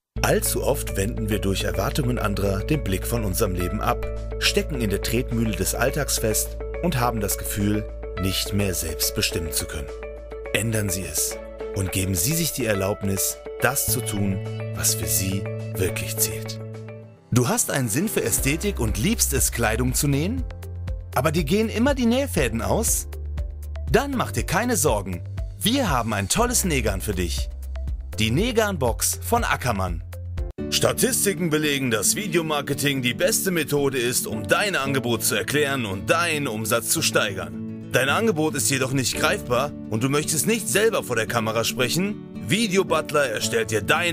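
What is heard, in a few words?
German, male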